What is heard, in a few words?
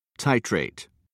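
"titrate", British English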